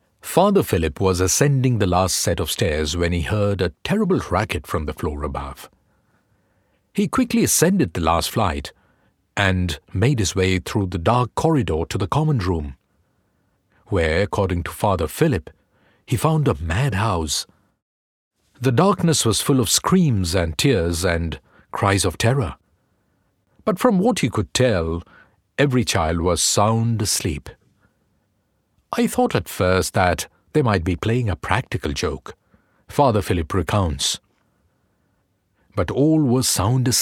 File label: BRITISH STORY TELLING; neutral english voice artist from Mumbai